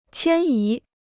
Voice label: "迁移", Chinese

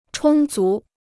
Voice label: "充足", Chinese

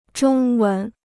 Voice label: "中文", Chinese

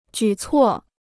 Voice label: "举措", Chinese

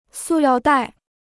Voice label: "塑料袋", Chinese

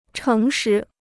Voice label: "诚实", Chinese

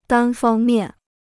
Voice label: "单方面", Chinese

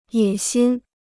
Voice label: "野心", Chinese

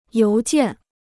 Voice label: "邮件", Chinese

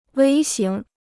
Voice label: "微型", Chinese

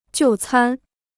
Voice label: "就餐", Chinese

